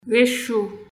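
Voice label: Haitian Creole; plaque de cuisson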